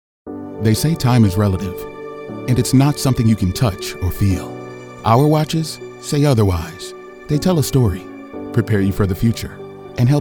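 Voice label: Commercial w Elearning; Demo Reel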